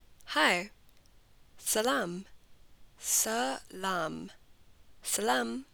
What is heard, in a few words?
"Hi", Punjabi